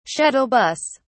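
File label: "shuttle bus", British English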